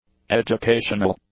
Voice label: "educational", English; adjectives

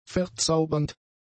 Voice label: "verzaubernd", German; adjectives

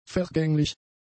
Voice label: "vergänglich", German; adjectives

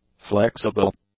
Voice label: "flexible", english; adjectives

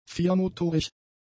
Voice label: "viermotorig", German; adjectives

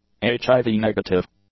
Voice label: "HIV-negative", english; adjectives

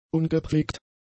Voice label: "ungepflegt", German; adjectives

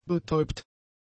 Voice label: "betäubt", German; adjectives

